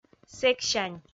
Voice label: "сэксянь", Komi